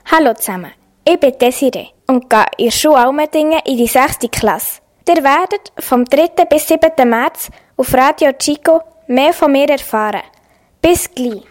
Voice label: Ankündigungsjingle; Allmendingen

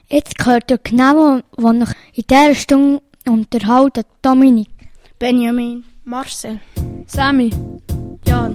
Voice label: Anmoderation; ebnit 4; Zollbrueck; mittelstufe lauperswil

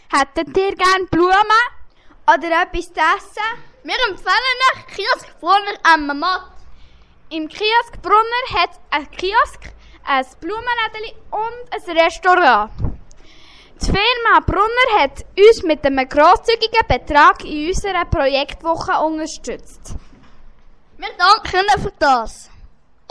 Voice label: Werbung; Brunner emmenmatt 4; Zollbrueck; mittelstufe lauperswil

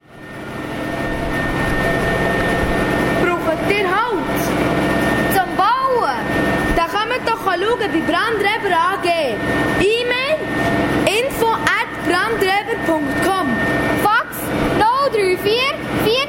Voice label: Werbung mit Lärm; Brand; Zollbrueck; mittelstufe lauperswil

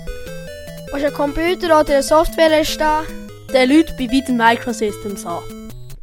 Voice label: Werbung; WMS; Golaten

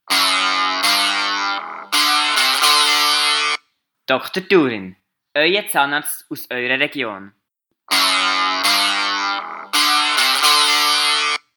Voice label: Werbespot Zahnarzt; Turin; Muensingen; schulzentrum rebacker in muensingen

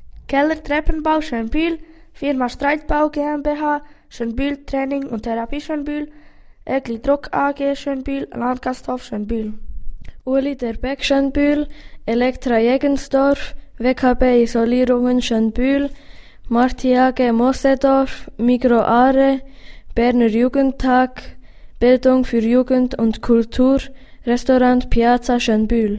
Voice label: Sponsoren Jingle; Schönbühl; loly lokalfernsehen lyss; schule urtenen schönbühl 2013

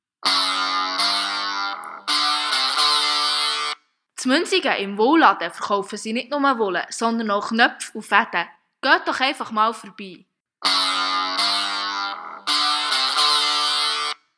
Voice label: Werbespot Wolle und mehr; Muensingen; schulzentrum rebacker in muensingen

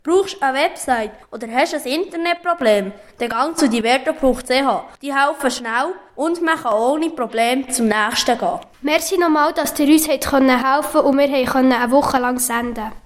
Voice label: Werbung; Diverto; Golaten

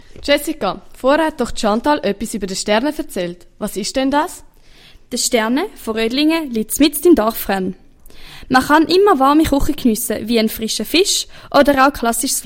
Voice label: Jingles Werbung Restaurant; Sternen Rüdlingen; orientierungsschule ruedlingen buchberg

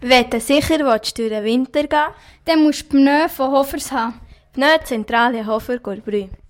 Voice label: Werbung Pneuzentrale; Hofer; Golaten

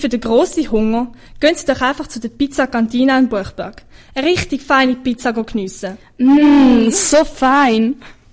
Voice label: Werbung Pizzeria; Cantina; OS Rüdlingen

